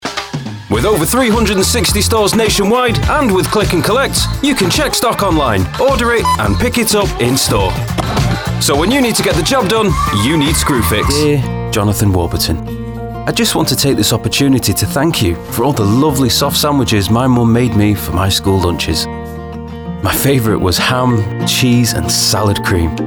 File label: comercial